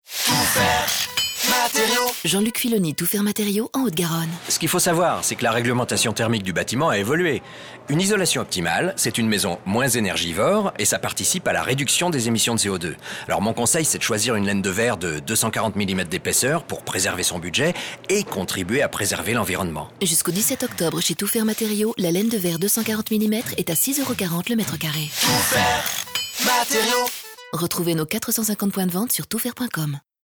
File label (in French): Pub comedie dialogue; TOUT FAIRE MATERIAUX